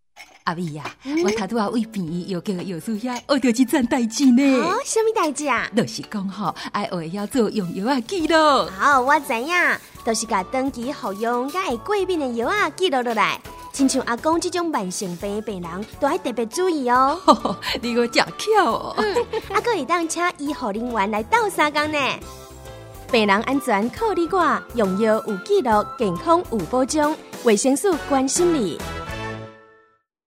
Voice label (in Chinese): 用藥紀錄-台語版